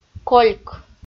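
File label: "кольк" Komi, female; audio dict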